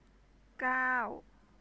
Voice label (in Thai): "gao", Thai